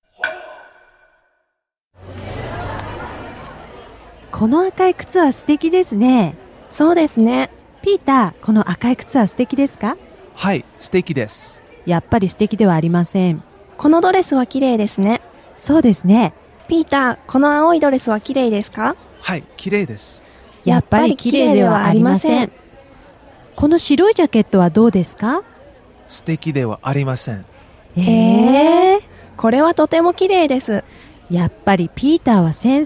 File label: dialog; JapanesePod101; Beginner Season 1